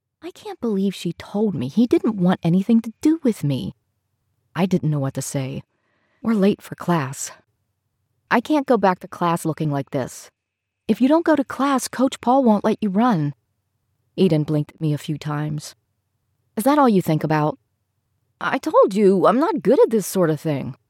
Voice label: Retail, voice-over